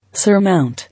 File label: "Surmount", English